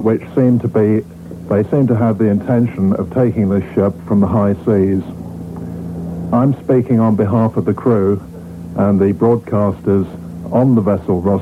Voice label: announcing arrival of Dutch tug